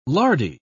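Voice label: "lardy", British English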